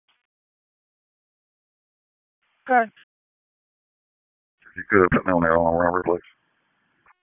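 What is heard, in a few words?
Raleigh Police Northeast District Dispatch; wake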